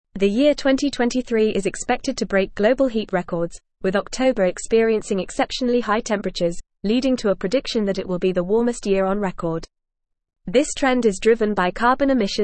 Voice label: English Newsroom Advanced FAST Reading; 2023 on Track to Be Warmest Year on Record

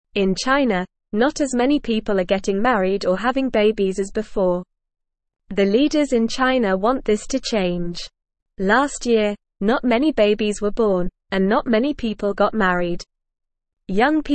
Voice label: English Newsroom Beginner NORMAL Reading; Chinas Leaders Want More People to Get Married

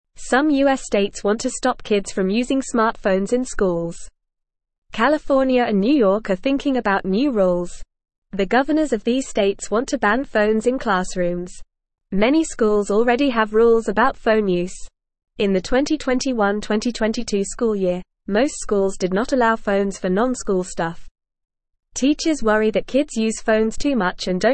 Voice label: English Beginner FAST Reading; States Consider Banning Phones in Schools to Help Kids

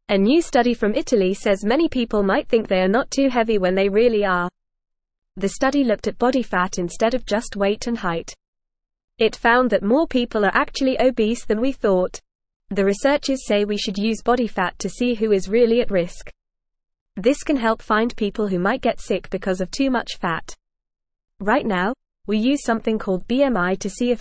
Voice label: English FAST Reading; Study Body Fat Shows Who Is Really Obese

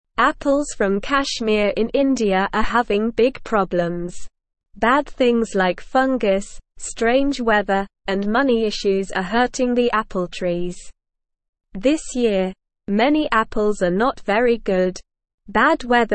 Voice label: Lower Intermediate SLOW Reading, English; English Newsroom; Troubles for Apples in Kashmir Bad Weather Money Wo

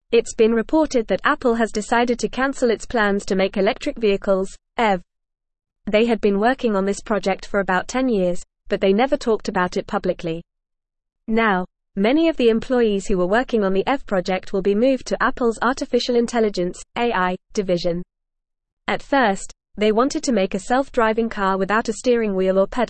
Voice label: English Upper Intermediate FAST Reading; Apple Abandons Electric Vehicle Plans Shifts Focus; It’s been reported that Apple has decided to cancel its plans to make electric vehicles (E

